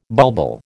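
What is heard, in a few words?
"bulbul", American English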